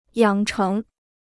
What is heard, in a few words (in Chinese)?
"养成", Chinese